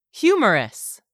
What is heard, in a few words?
"humorous", English; 3000 words